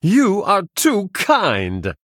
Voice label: Male Human Thanks; en